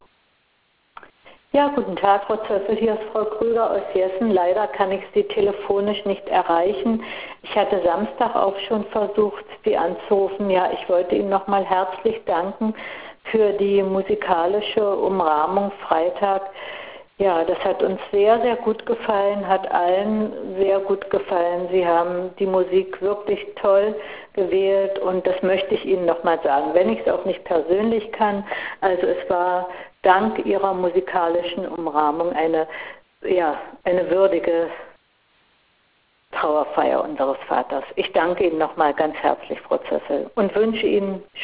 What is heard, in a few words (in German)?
Anrufbeantworter